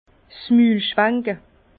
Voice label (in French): rincer la bouche, Bas Rhin 's Mül s